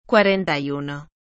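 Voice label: "cuarenta y uno", Spanish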